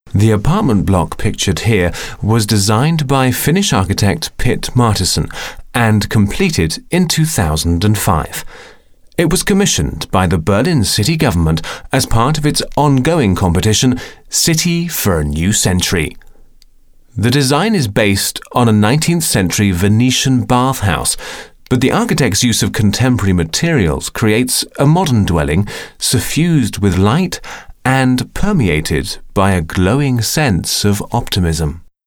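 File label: British audioguide; sprecher; englisch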